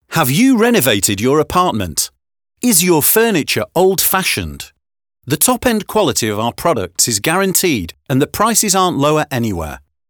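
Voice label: English male Active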